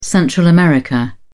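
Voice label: "central america", British English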